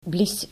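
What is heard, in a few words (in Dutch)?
"blies", Dutch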